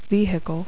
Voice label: "vehicle", Spraak